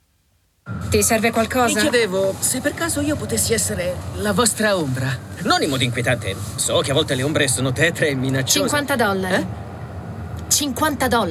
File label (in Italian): doppiaggio; sneakerheads